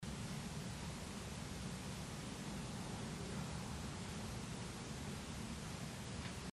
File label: unknown whisper im god empty room childrens area; Gardner’s Used Books & Music; Tulsa, Oklahoma